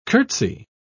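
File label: "curtsey", British English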